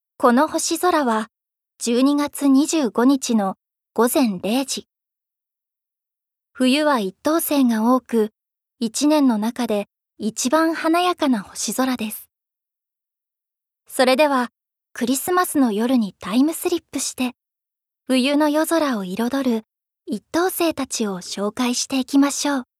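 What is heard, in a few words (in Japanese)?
NA, 声優; ナレーション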